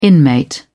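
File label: "inmate", British English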